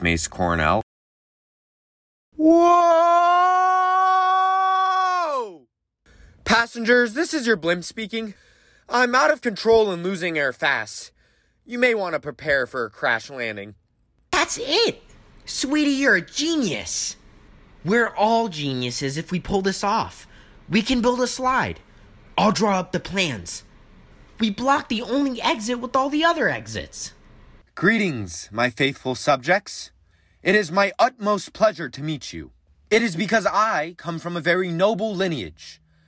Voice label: animation; Innovative LA